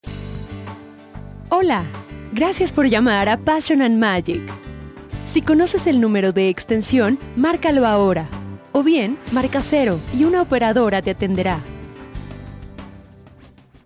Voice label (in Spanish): Ejemplo bienvenida voz femenina